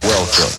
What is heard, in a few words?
"welcome", announcer; Voice; introductions